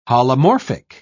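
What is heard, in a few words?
"holomorphic", British English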